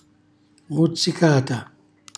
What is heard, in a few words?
"muzzicata", Calabrese; morso; cucina calabrese; Glossario